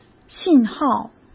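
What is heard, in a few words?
"信号", Mandarin Chinese; chinese-flashcards; hsk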